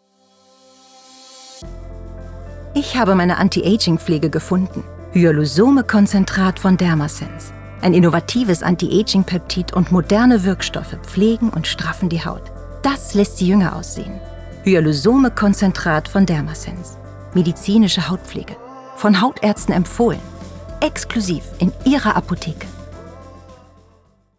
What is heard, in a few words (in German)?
Werbung